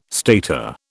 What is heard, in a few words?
"stater", British